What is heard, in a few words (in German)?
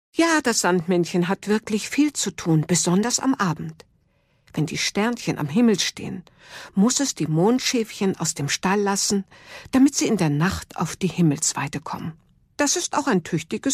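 erzaehlung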